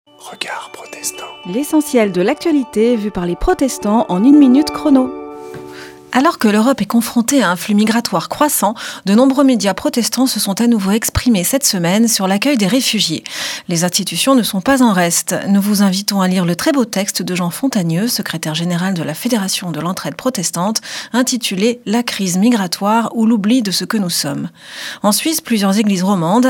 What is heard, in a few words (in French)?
Spot radio; Regards protestants